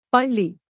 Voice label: "palli", tamil; texttoSpeech